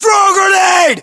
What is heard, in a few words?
order action grenade, US